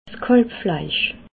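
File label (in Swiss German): "kalbfleisch", Bas-Rhin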